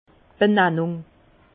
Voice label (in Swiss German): "bennannung", Bas Rhin; vin; dénomination